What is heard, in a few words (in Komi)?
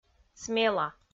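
"смела", Komi, female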